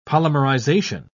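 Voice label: "polymerization", British English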